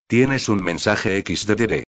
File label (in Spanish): mensaje loquendo